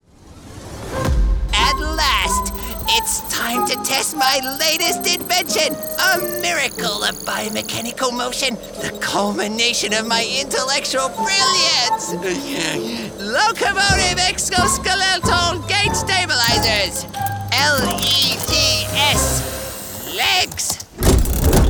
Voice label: Animation Reel

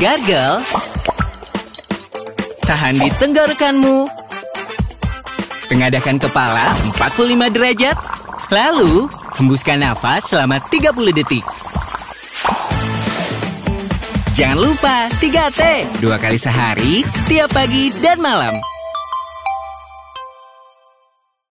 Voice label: Announcer Waktu Indonesia

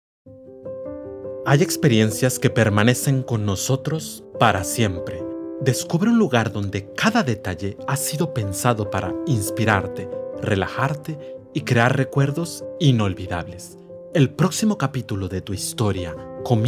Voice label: Spanish

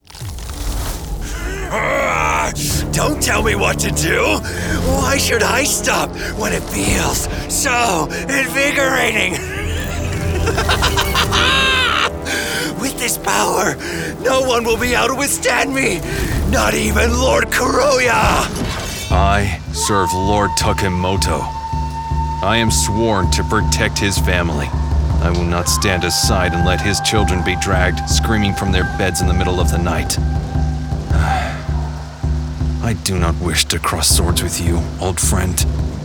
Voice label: Anime

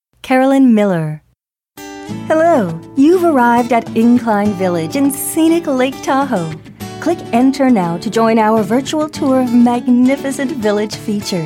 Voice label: narration

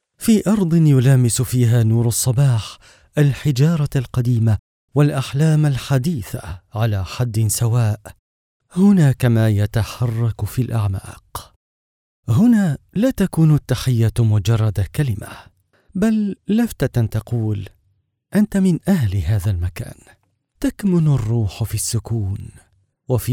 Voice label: Narrative Commercial

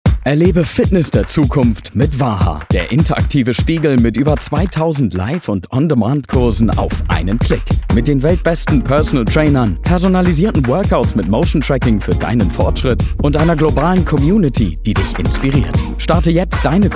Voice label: COMMERCIAL GER Fitness

